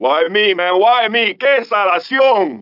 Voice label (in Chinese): 拉丁裔海滩中年男性, 被枪吓到; 罪恶都市